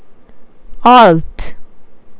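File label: "alto", Japanese speaker of English, female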